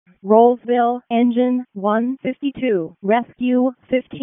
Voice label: WC FD ALERT, p25; wake